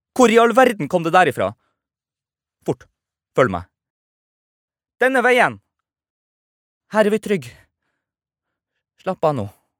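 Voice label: no dubbing, Norwegian, male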